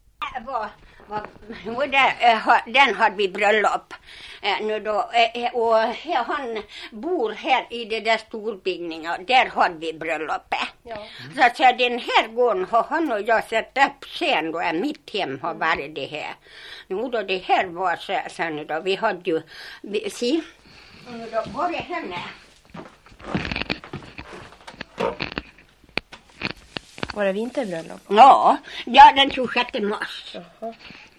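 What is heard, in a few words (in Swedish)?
Gagnef Dalarna, dialekter; Folkminnen; Svenska sagor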